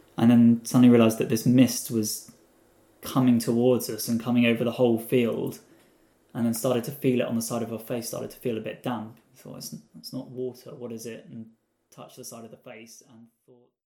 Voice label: NRP MALE